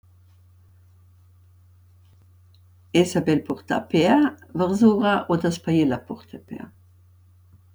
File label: Gardenese (St. Christina in Gröden, South Tyrol); Essa bel purtà pea versura o dess’ pa ie la purté pea?; AlpiLinK